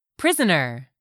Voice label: "prisoner", English; 3000 words

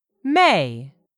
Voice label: "May", English; 3000 words

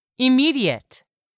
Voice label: "immediate", English; 3000 words

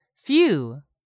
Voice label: "few", English; 3000 words

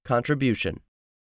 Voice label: "contribution", English; 3000 words

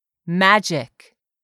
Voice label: "MAGIC", english; 3000 words

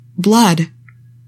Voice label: "blood", english; 3000 words

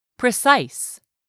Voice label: "precise", English; 3000 words

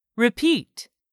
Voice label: "REPEAT", English; 3000 words

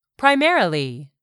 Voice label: "primarily", english; 3000 words

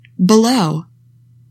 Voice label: "BELOW", english; 3000 words

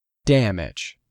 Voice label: "DAMAGE", English; 3000 words

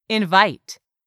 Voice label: "INVITE", english; 3000 words

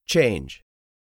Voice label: "CHANGE", english; 3000 words

